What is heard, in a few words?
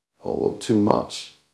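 Voice Acting, Spanish, male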